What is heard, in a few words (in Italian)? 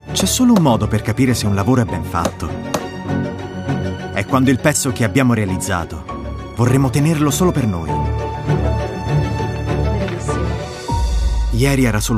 ADV, Italian, male; commercial; ArredoLegno